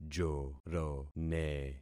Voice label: "jorone", concat syllables; Affixing experiment